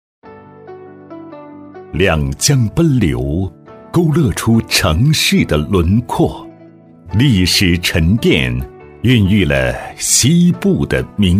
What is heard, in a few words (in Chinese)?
男 大气优美; 重庆江北区; 男37-大气稳重